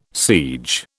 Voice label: "siege", British